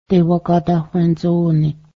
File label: i need, Cayuga